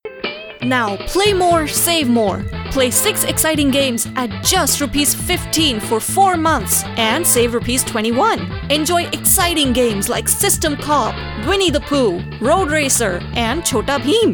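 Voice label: promo English; dishtv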